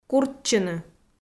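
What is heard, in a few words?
"курччыны", Komi, female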